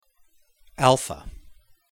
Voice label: greek alpha